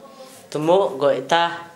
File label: rinse mouth, Gta, Dialect: Hill; tmoʔ gojʔ-ta(ʔ); rinse mouth (vb.)